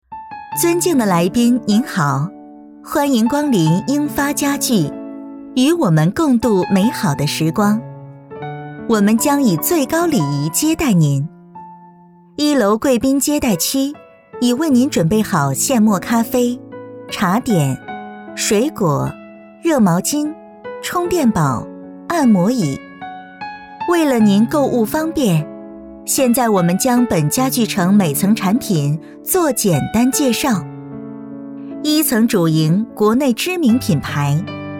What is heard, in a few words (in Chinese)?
女 商场广播 温柔甜美; 女声广播提示